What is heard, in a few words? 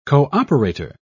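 "cooperator", British English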